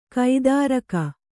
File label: "ಕಾತರಿಕೆ", Kannada